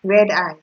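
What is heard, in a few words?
"sky", Nigerian Pidgin, female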